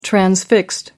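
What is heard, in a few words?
"transfixed", British English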